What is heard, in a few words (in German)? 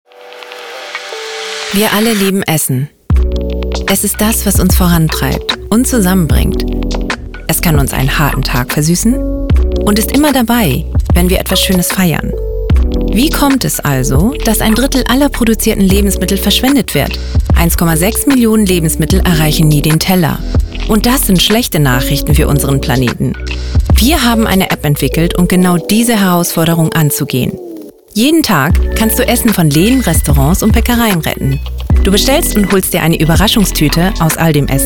Sprecherdemos Modern Locker